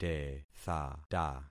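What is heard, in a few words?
"tethata", concatenated syllables; Affixing experiment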